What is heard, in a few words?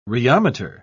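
"rheometer", British English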